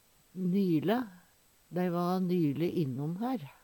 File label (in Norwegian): nyle, Numedalsmål; nyleg, for kort tid sidan; Adverb